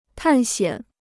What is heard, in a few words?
"探险", Chinese